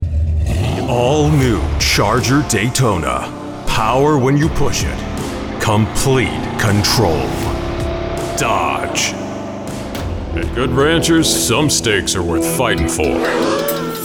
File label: Commercial